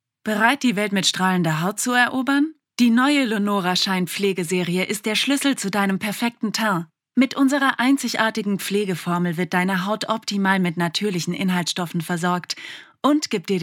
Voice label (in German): Werbung; Lonora